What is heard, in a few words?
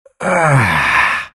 happy; lone druid